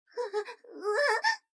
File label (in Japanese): 女の子台詞